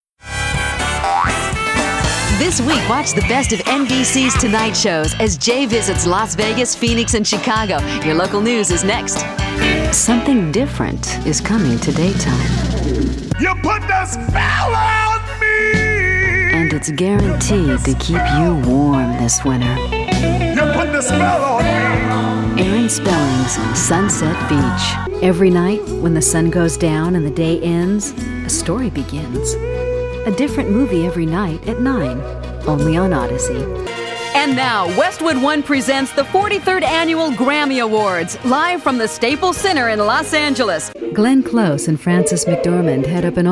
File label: Female, promos